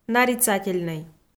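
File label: "нарицательнӧй", Komi, female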